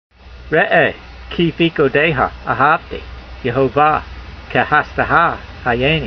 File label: voice, hebrew language; Psalm 119:159; re'ay kee-feekoodeyha a'havtee, yehovah ke'hasdeha hayaynee